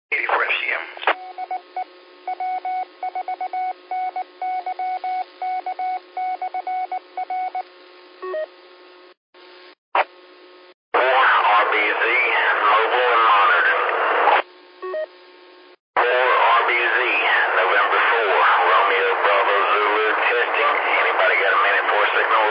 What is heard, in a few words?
qso rec simplex logic